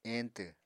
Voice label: "eñtw", Apatani